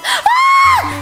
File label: "Grenade", Worms speechbanks; Watson Amelia